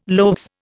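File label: "loaves", Indian English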